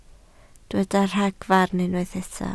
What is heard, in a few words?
"ragfarn", Welsh